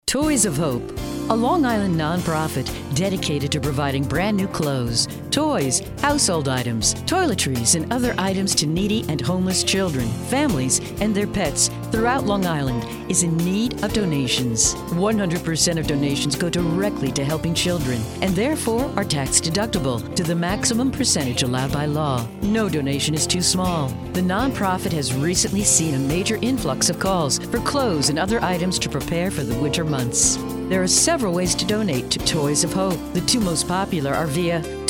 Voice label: commercial; wbli